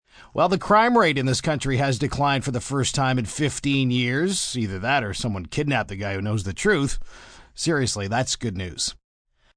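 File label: News Crime M, Broadcast, news, male news item on declining crime rate.; human voice speaking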